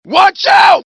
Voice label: "move up", grunt

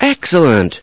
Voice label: cheer; voice commands; scientist

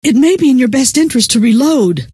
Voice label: reload, scientist female

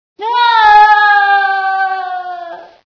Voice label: cat scream, female

